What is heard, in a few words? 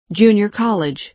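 "junior college", American English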